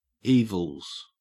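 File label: "evils", Australian English; pronunciations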